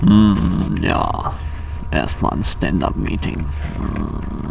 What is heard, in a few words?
standup; buzzwords; agile